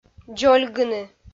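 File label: "дзольгыны", Komi, female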